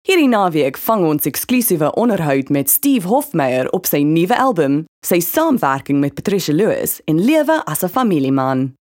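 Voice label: Afrikaans General; GoLocalise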